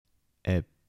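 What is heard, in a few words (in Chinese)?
𢱕-台城-男, 台山话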